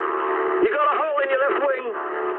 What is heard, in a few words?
eng left wing mood med, speech eng; War Thunder